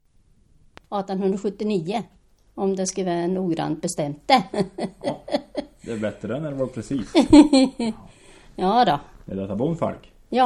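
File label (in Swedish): Revsund Jämtland, dialekter; Folkminnen; Svenska sagor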